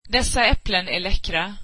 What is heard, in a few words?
these apples are delicious, swedish